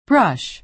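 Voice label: "brush", American English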